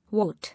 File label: "Wart", English